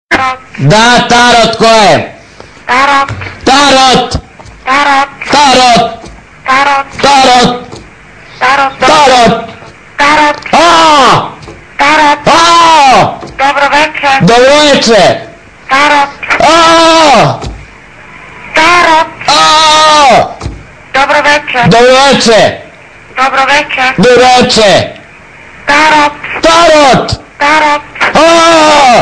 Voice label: Is Calling RNB

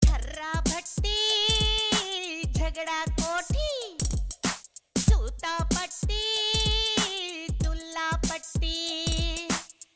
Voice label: rap, dance

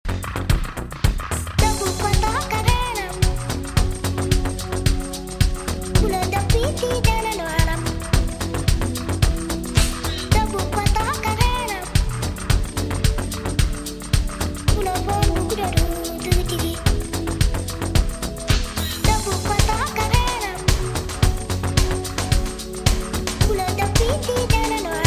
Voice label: sweet hot voice; ringtones

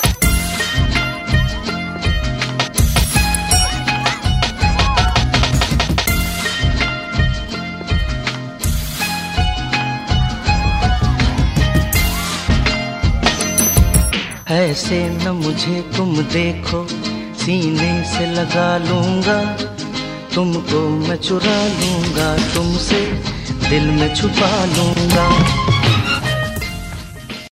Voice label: Soap Ad; Wild Stone

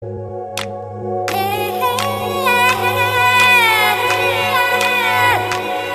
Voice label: SMS Girl

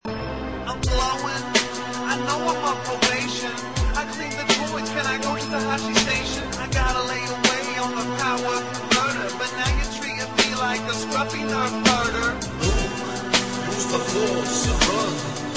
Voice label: gangsta rap

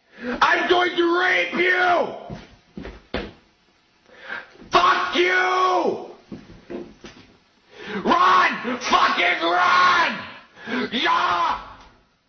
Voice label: guy yelling at cats

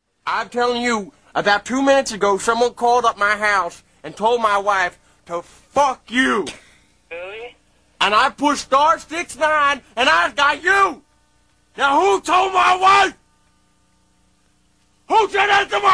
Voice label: prank call

